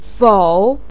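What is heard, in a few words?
"fou" third tone, chinese, voice pinyin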